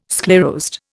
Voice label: "sclerosed", Indian

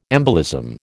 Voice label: "embolism", American

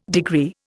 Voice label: "degree", Indian

